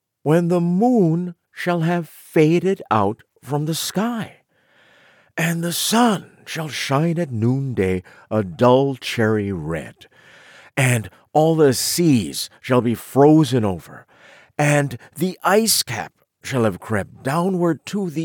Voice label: Narration